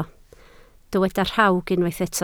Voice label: "rhawg", Welsh